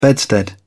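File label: "bedstead", English, British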